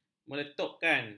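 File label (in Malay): "meletupkan", Malay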